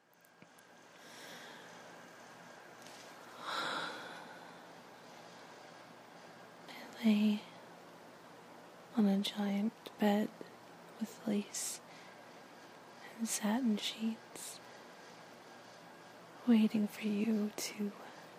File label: improv, fsub, lustful, sensual dirty talk, teasing; [F4M] Teasing A Submissive; [teasing your good girl]